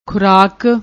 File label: "khuraak", Punjabi; ਖੁਰਾਕ